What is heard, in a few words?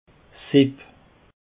"sieb", Alsace